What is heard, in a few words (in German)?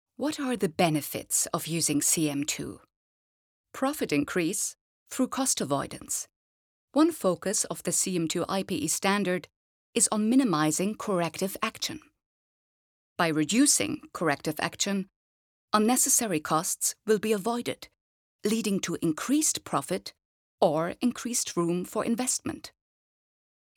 Casting Erklaerfilm